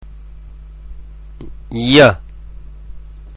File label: "Yaa", arabic; arabic-malayalam; അക്ഷരമാല